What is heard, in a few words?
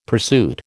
"pursuit", American